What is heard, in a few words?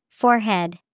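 "forehead", English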